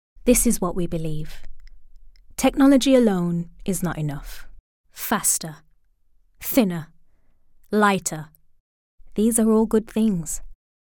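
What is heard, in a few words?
British English, Commercial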